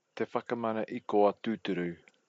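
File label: "te whakamana ikoa tuturu", Māori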